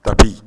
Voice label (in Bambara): "tapi", Bambara; objets